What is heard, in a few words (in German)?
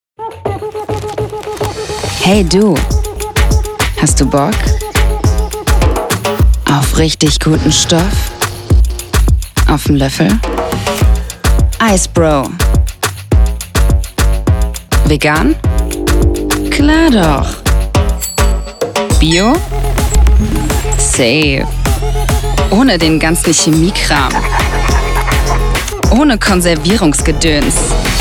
WERBUNG; IceBro